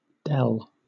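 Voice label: "dell", British English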